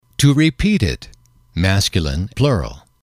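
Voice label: "to repeat it", English, male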